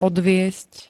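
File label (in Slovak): "odviesť", Slovak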